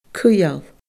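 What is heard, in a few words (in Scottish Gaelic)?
"cuidheall", Scottish Gaelic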